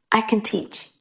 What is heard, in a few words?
Voice Acting es F